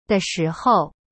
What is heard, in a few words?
"的时候" de shi hou, chinese